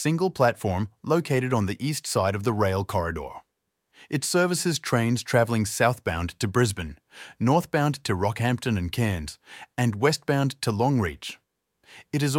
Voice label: Cooroy Railway Station, Station map audio description; TRAX; South East Queensland; Overview Address Elm Street